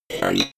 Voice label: robot voice three fx